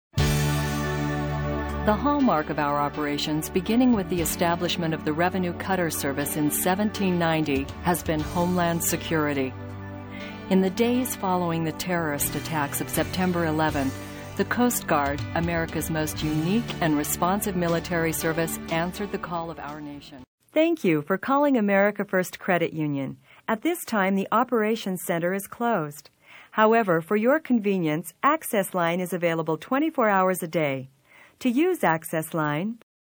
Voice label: NARR